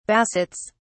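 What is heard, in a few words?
"bassets", British English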